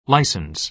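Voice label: "license", American English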